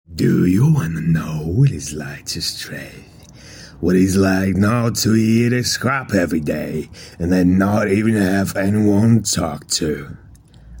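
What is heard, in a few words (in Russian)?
английский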